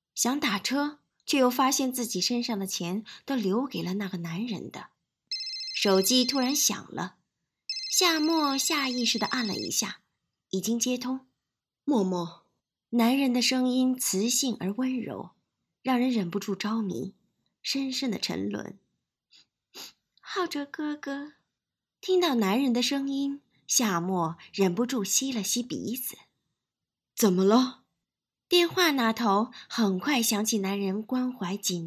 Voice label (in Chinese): 情感女声